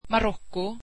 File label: swedish Morocco